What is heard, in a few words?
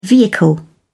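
"vehicle", British English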